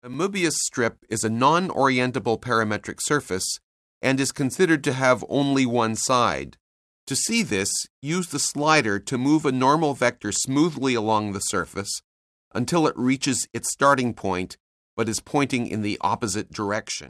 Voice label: narration; CALCULUS 7E; cengage